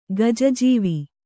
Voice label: "ಗಜಾಜೀವ", Kannada

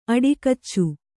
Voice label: "ಅಡಿಕಚ್ಚು", Kannada

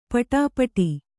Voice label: "ಪಡುಪಾಟು", Kannada

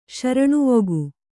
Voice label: "ಶರಣುವೊಗು", Kannada

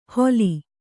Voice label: "ಹೊಲಿ", Kannada

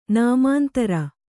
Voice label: "ನಾಮಾಂತರ", Kannada

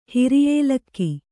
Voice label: "ಹಿರಿಯೇಲಕ್ಕಿ", Kannada

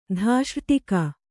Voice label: "ಧಾಷ್ರ್ಟಿಕ", Kannada

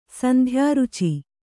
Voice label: "ಸಂಧ್ಯಾರುಚಿ", Kannada

